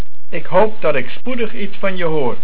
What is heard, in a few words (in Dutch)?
"Hoop", Dutch